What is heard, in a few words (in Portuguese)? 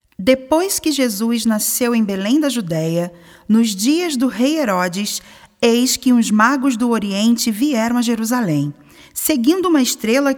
Narracao; A Estrela Sempre Brilhara